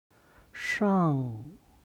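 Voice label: "shang", Chinese; 上; HSK Level One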